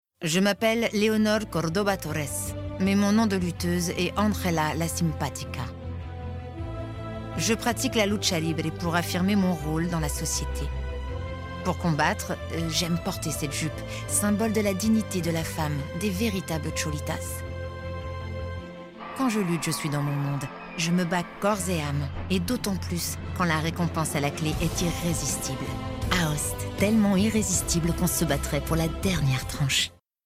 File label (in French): Pub TV Documentaire; Aoste; Cholitas style